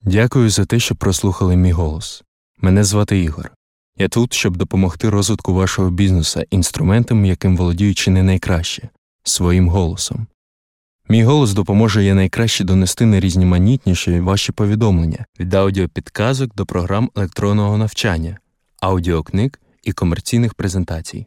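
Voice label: Ukrainian, intro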